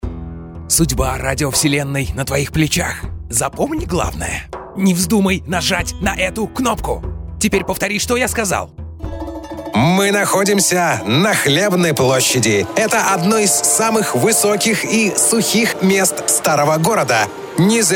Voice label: Diktor; announcers